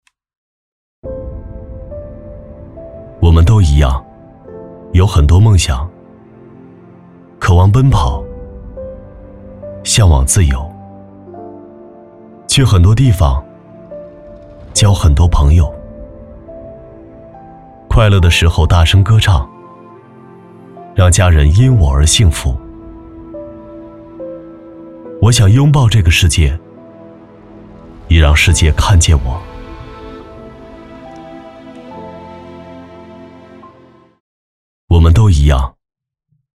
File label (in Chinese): 男 走心